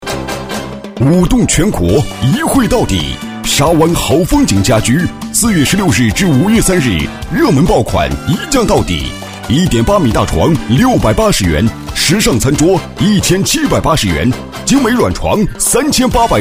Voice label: 促销 家居; 好风景家居

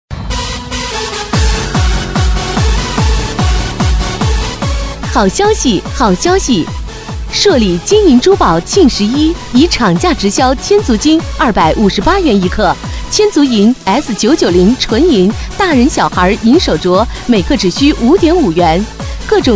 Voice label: 广告促销; 珠宝; 朔里

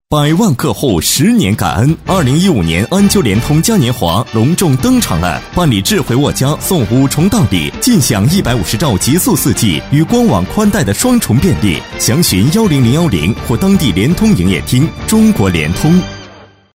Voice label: 广告 广告配音; 安丘联通

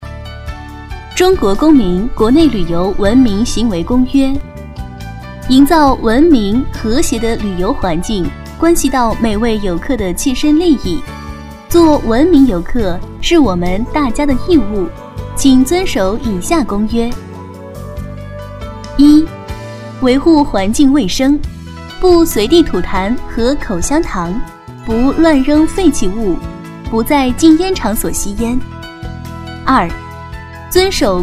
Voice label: 公告 文明行为公约

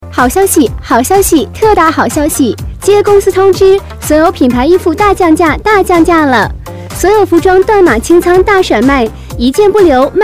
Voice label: 促销

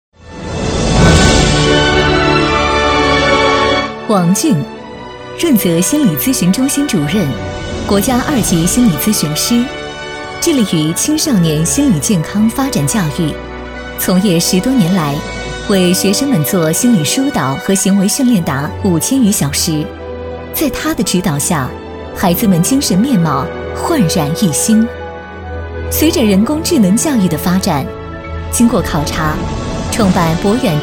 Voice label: 【专题】大气人物颁奖

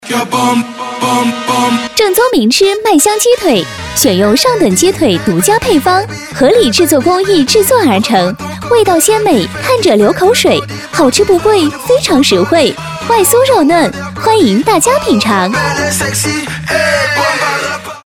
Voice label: 激情促销 麦香鸡腿

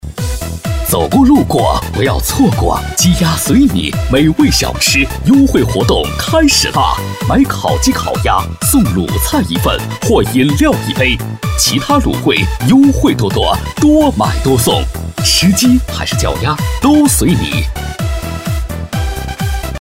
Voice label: 促销 美味小吃, peiyin

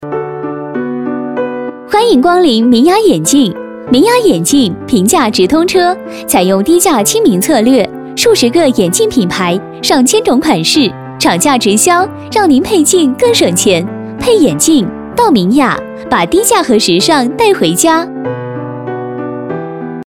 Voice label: 激情促销 眼镜; 明雅眼镜

